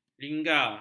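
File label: "lingga", Malay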